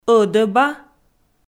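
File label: "ӧдӧба", Komi, female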